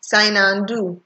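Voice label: "signado", Nigerian Pidgin, female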